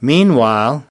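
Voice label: "meanwhile", English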